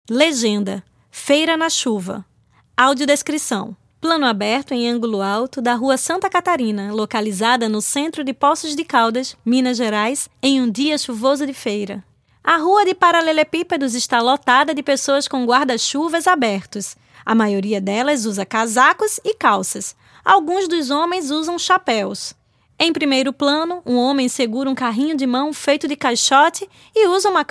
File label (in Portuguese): audiodescricao; ExpoFotoclubismoPocos; Feira na chuva